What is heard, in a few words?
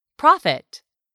"profit", english; 3000 words